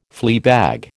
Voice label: "fleabag", American